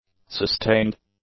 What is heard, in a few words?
"sustained", English; adjectives